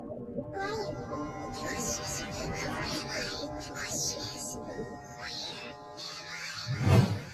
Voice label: Chipmunk